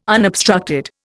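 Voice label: "unobstructed", Indian English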